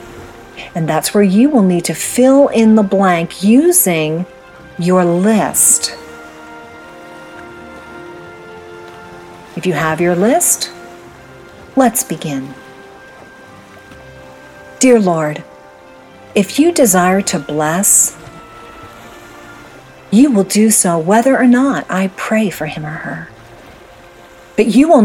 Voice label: Prayer with Ocean